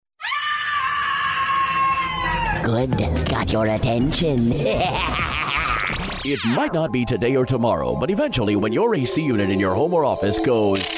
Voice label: Male, English, American; Character Demo